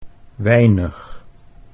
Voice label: "weinig", Dutch